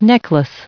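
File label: "necklace", US English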